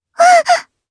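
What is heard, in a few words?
voice Sur1, voices heroes, Japanese; Lavril; kingsraid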